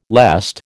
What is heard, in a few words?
"lest", American